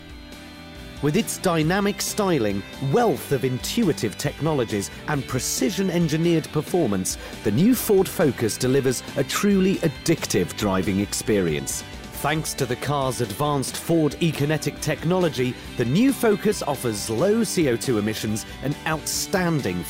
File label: Ad; Ford Focus; FORD FOCUS COMMERCIAL